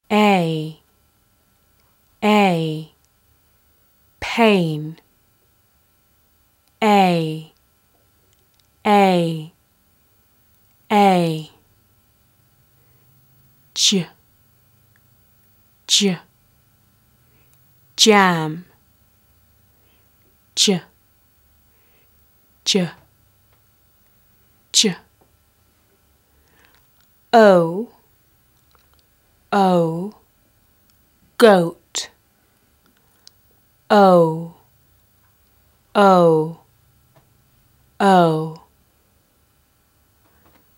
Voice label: Phonics Spoken Guide Ai J